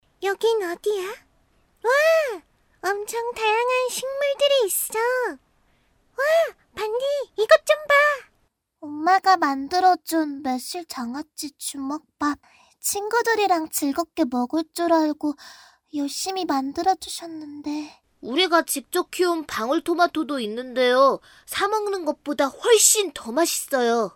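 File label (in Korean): 여성 (Female) 광고 나레이션 - 캐릭터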